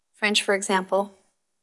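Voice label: Voice Acting es Female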